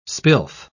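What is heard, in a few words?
"spilth", British English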